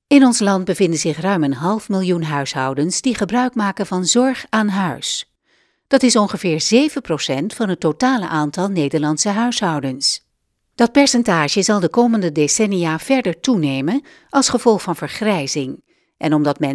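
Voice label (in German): Dutch kommentar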